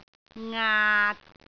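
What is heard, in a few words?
"ngaat6", Cantonese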